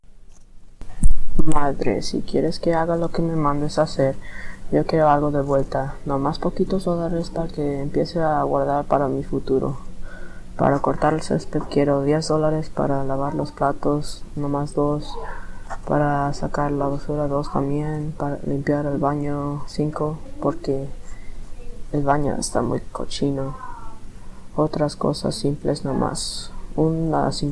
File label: Spanish Audio Sample